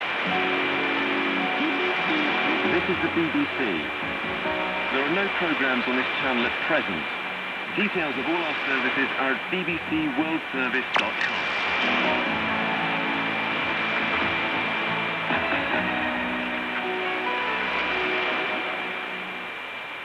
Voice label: BBC 7485kHz